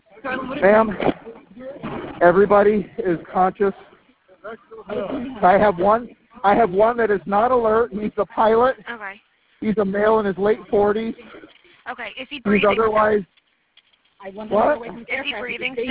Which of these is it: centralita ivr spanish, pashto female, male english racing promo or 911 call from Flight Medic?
911 call from Flight Medic